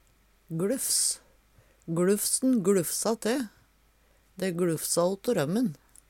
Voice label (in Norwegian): "gLuffs", Numedalsmål; Substantiv hankjønn